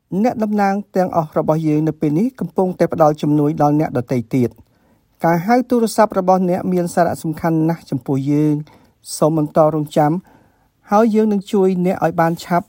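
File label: Khmer, General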